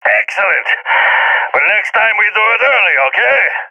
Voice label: close call, balkan; vo; agents

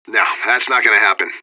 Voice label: radio bot reponse negative; fbihrt

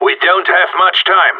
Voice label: radio bot time; Counter Strike Model Pack; CS:GO; gsg9

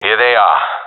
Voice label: sees enemy, professional epic; vo; Source Model Packs; Counter Strike Model Pack; CS2

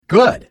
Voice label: good, announcer; Portal 2

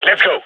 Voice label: radio lets go; gign

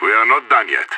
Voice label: scared emote

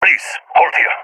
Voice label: request hold, balkan; vo; balkan epic

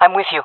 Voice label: following friend, fem; player vo jungle fem

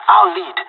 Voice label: radio follow me, pirate; Counter Strike Model Pack; CS:GO

